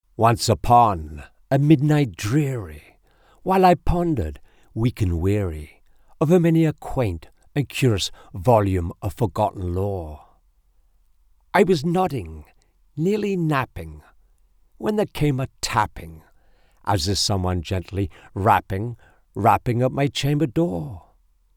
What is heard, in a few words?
Narration, Poetry, British Male Voice; The Raven